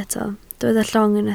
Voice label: "llong", Welsh